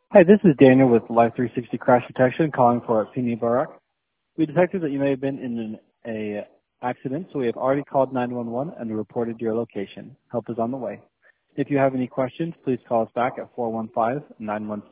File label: voicemail